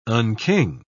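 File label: "unking", English, British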